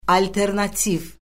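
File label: "alternativ", Azerbaijani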